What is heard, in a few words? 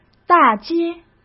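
"大街", Mandarin Chinese; chinese-flashcards; hsk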